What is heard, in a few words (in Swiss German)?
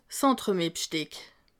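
"entremets bsteck", Alsatian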